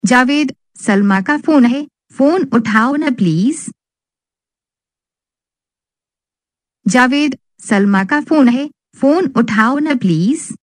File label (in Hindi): ka phone hai phone uthao saying